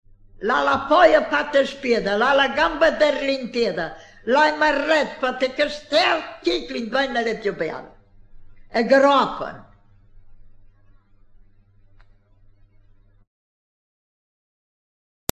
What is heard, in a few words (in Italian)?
indovinello, romagna